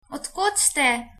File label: where from, slovenian